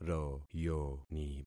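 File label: "royoni", concatenated syllables; Affixing experiment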